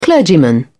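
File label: "clergyman", British English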